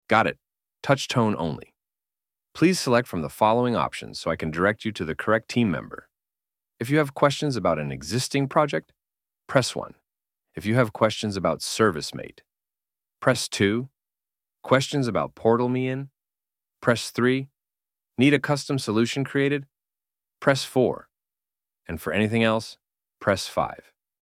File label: Touch Tone Menu